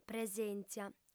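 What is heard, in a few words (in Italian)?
"presèntzia", lingua sarda, fem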